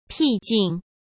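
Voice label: "僻静", Mandarin Chinese; chinese flashcards; hsk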